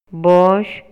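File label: Maya, male; PERCEPCIÓN SENSORIAL; INALI; MayaYuc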